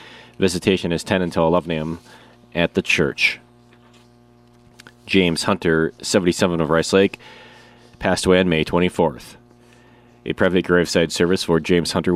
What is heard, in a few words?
Funeral Announcements